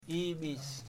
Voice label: "mosquito", Kamba